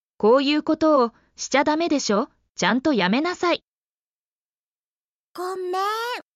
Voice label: Emotion Level: Anger increasing, demanding immediate action; 「こういうことをしちゃダメでしょ、ちゃんとやめなさい（koiukotowoshichadamedesho,chantoyamenasai！）」「ごっめーん（gommen！）」